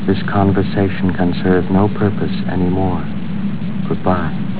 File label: conversation